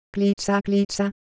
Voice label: Ewe Texture, IdeoPhones